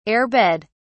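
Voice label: "air-bed", British English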